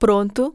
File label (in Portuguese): portuguese ready; samsung YP-U5; Audio User Interface